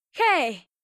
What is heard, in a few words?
bonjour, voix